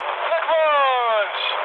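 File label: German player killed enemy mood high, speech; War Thunder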